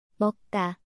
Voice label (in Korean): "먹다" eat, Korean